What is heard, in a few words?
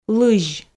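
"лызь", Komi, female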